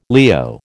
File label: "Leo", American